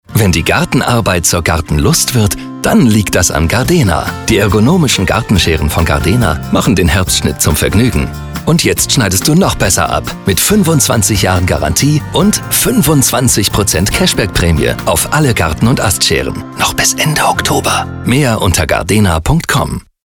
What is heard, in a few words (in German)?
DE Werbung Funk; ADVERTISING; Gardena Gardenlust